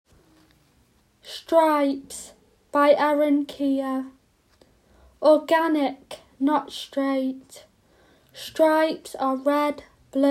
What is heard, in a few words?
audio description; Stripes